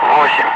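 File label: Russian speech, Ka-50 Wingman; Digits; DCS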